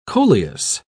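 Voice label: "coleus", British English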